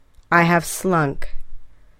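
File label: "I have slunk", English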